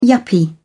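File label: "yuppie", British English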